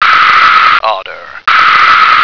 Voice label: "otter", American